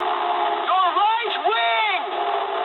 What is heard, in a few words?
eng right wing mood high, speech eng; War Thunder